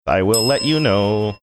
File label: sing songy